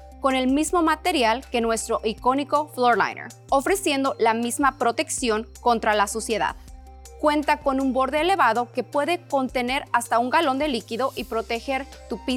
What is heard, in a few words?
Christmas Tree Mat Spanish